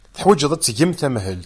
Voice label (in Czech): berberština